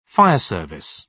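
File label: "fire service", British English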